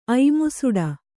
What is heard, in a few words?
"ಐಮುಸುಡ", Kannada